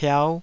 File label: "piau5", Taiwanese